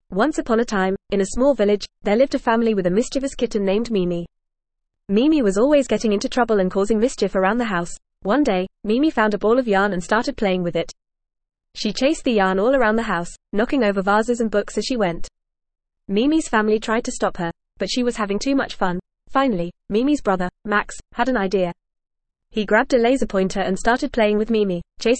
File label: ESL Short Stories for Kids FAST reading; The Mischievous Kitten